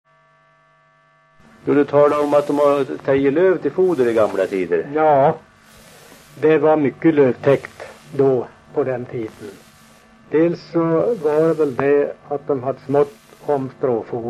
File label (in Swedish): dialekter; Folkminnen; Svenska sagor